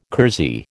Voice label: "kersey", American

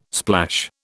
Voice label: "splash", British

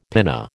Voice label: "pinna", American

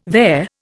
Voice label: "vair", Indian

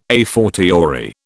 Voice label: "a fortiori", British

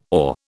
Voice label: "awe", British

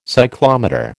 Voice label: "cyclometer", American

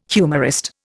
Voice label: "humorist", Indian

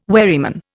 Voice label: "wherryman", Indian

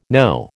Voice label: "Noh", American

